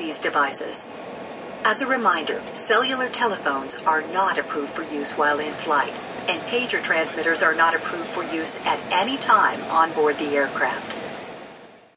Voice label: Stewardess announces federal regulations before airline takeoff.; Airplane Sound Effects